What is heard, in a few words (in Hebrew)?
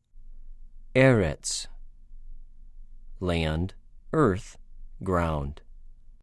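"אֶ֫רֶץ", Hebrew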